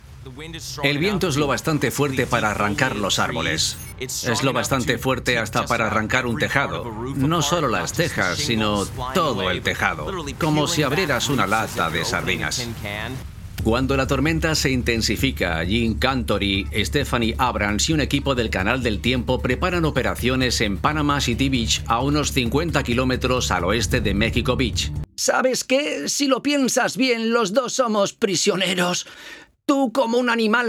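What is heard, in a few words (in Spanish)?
doblaje España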